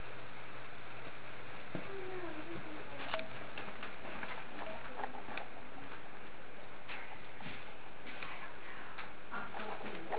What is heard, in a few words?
childs voice